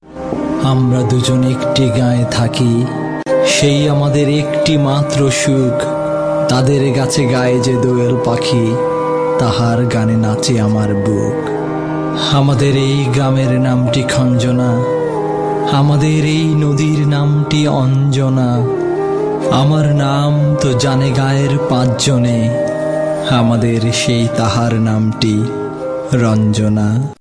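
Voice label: Bengali; Bengali, Male, 20s-30s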